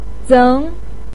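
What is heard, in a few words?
"zeng" second tone, Mandarin Chinese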